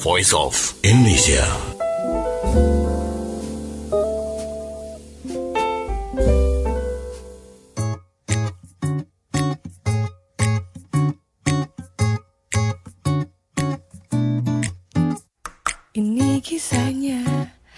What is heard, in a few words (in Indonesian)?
SIARAN ARAB; PENA